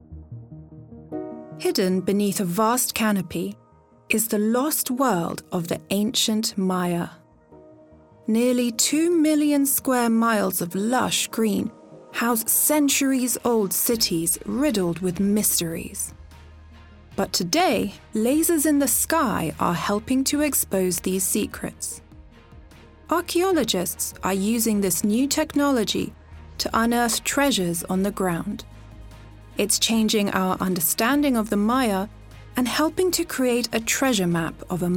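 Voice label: English UK, Narration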